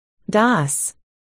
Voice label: "dos", articulation

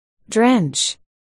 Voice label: "drench", articulation

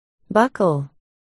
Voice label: "buckle", articulation

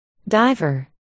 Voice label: "diver", articulation

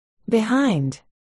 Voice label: "behind", articulation

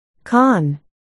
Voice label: "con", articulation

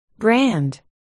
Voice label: "brand", articulation

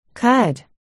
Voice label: "cud", articulation

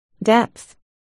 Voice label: "depth", articulation

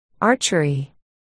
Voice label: "archery", articulation

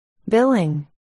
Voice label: "billing", articulation

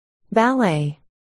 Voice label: "ballet", articulation

